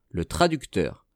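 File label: "le traducteur", French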